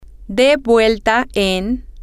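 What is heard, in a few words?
turn on, spanish, female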